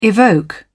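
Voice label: "evoke", British English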